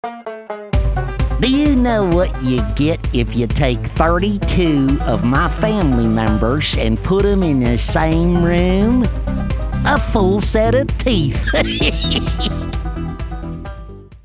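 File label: Hillbilly, voice over demos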